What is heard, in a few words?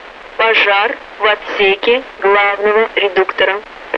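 main transmission fire, Russian; Mi-8MT RI65; DCS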